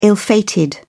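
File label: "ill-fated", English, British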